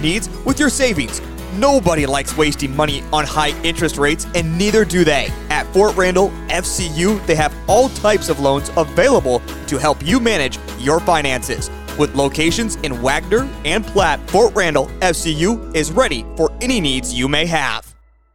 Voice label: Credit Union Commercial; Fort Randall